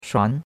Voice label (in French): "shuan2", chinois; Cours-de-Chinois